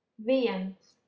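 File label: "viens", Latvian, female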